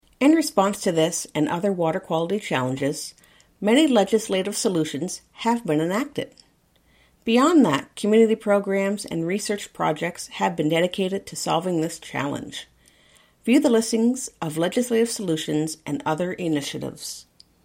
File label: narration solutions